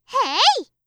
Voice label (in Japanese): "へーい！", 合いの手